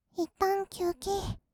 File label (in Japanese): "いったん休憩", kawaii voice; ASMR; 色々なワンショット